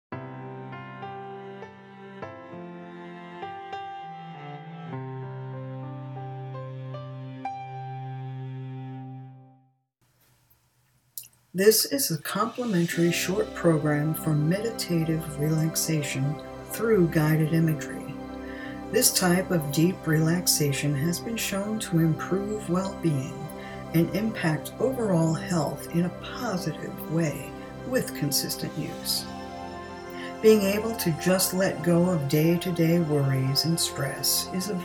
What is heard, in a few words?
quick meditation trainer